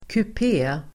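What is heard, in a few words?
"kupé", Swedish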